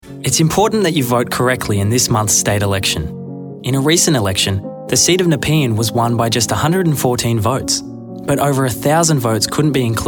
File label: narration